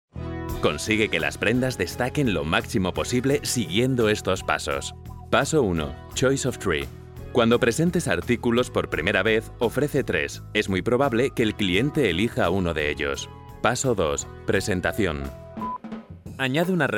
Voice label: castilian spanish male commercial

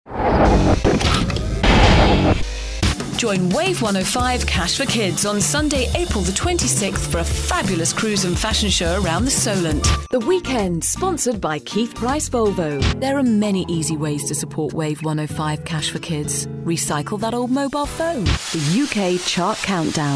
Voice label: hampshire idents and promos

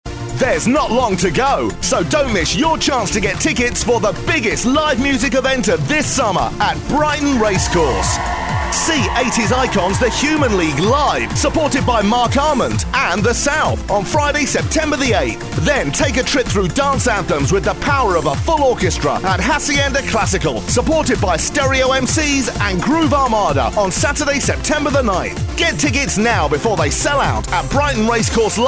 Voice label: club promo

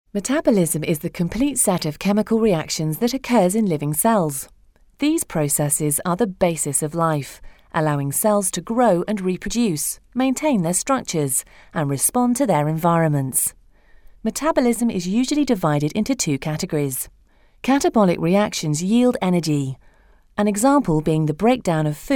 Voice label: technical narrative

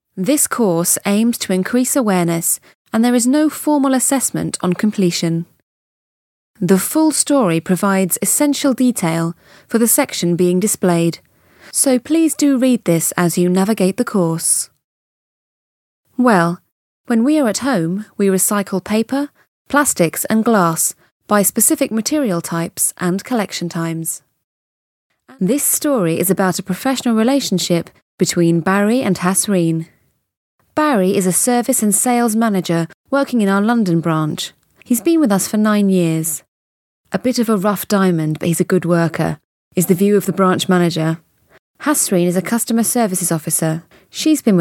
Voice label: elearning

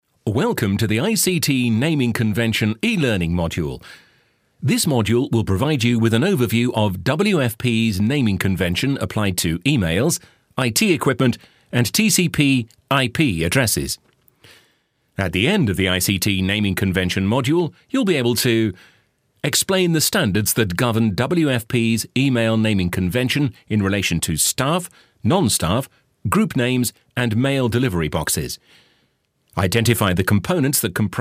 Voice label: elearning

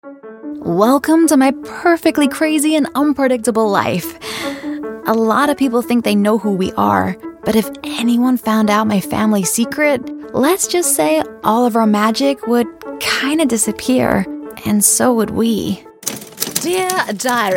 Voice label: new zealand voiceover character

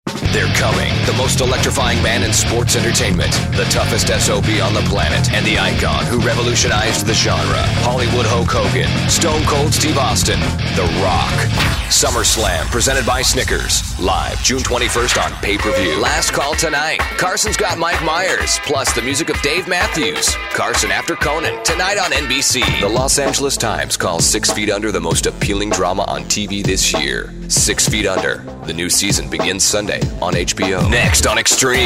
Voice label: american tv promo

